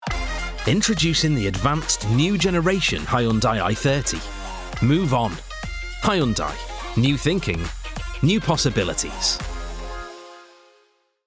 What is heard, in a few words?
male english natural wirral accent commercial; hyundai